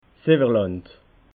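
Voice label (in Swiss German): "ewerland", Alsatian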